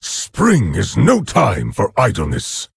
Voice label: Orc

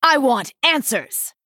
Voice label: Female Night Elf Attack

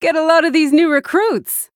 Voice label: Female Human General

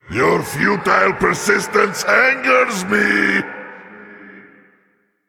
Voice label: Male Elemental Threaten

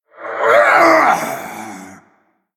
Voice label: Male Draenei Death

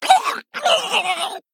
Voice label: Male Murloc Death

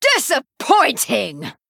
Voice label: Female High Elf Attack

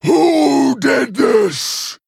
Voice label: Female Quilboar Play

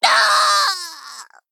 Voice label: Male Gnome Death

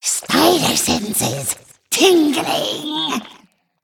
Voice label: Female Spider Druid

